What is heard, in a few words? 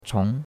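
"chong2", Chinese; Cours-de-Chinois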